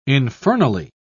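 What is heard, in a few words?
"infernally", British English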